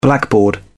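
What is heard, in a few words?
"blackboard", British English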